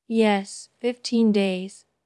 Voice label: Voice Acting es